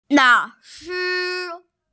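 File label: "lao shi", Mandarin Chinese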